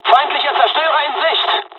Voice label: dialog, dialogs german; War Thunder; midway